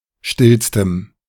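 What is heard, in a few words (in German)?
"stillstem", German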